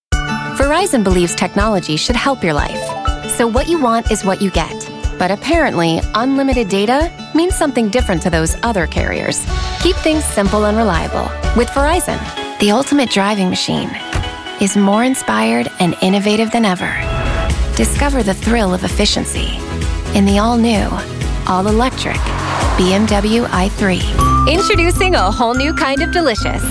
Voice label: Voice Talent Commercial

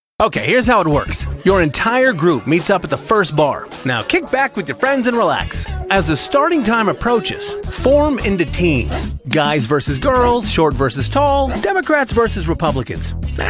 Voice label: Voice Talent Narration

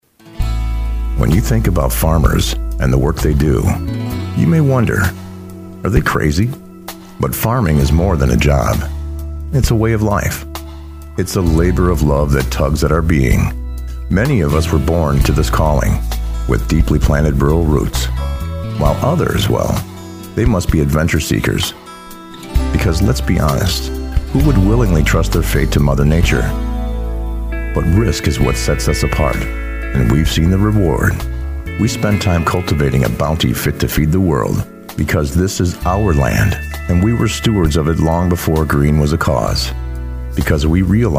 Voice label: Voice Talent Narration

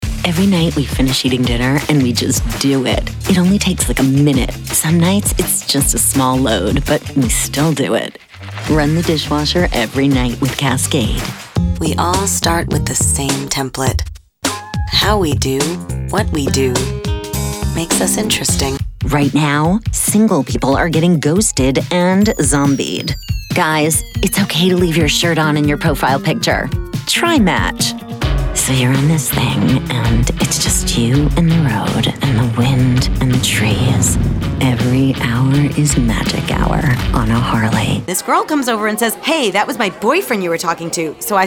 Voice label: Voice Talent Commercial; Commercial Demo

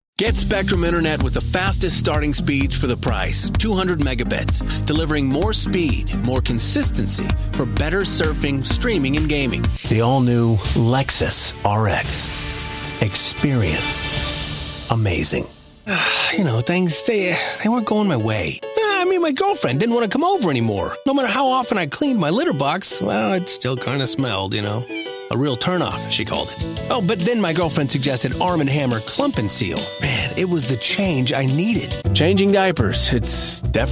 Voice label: Conversational

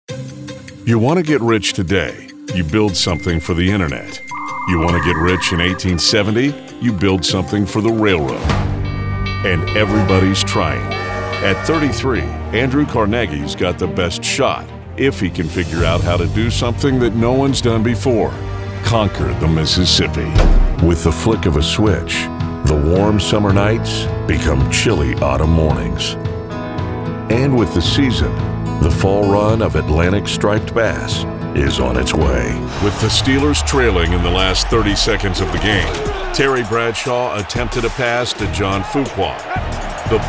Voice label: Voice Talent In Show Narration

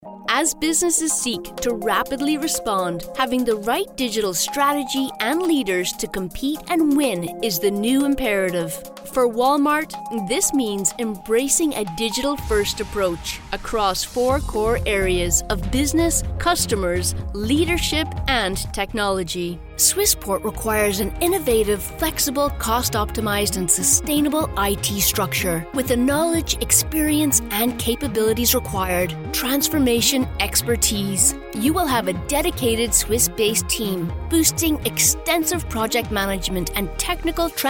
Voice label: Voice Talent Corporate Narration

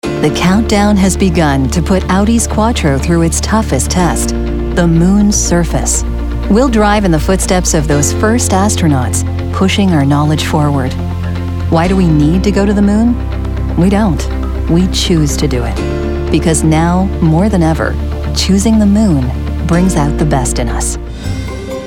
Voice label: Corp Narration

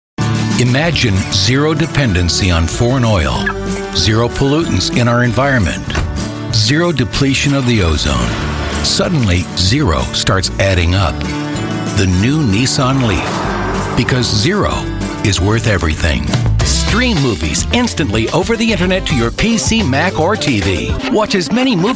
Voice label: Voice Talent Commercial

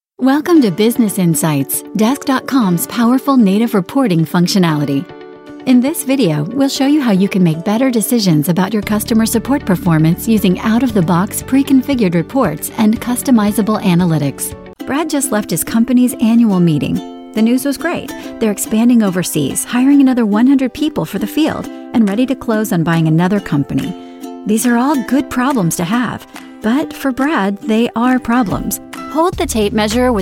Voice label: Voice Talent Narration

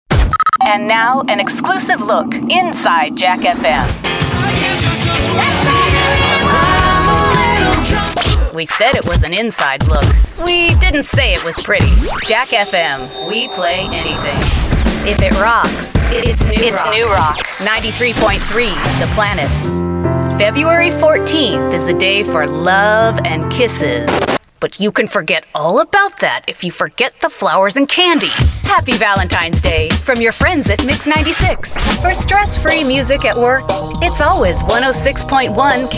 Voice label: Imaging

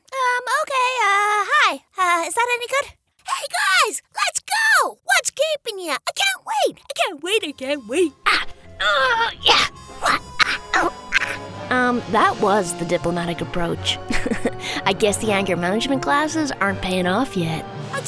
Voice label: Cartoons Gaming

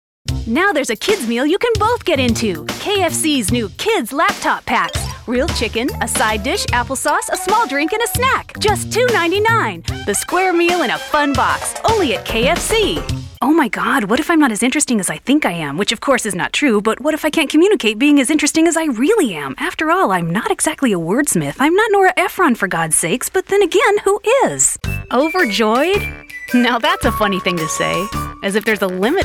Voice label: Voice Talent Commercial

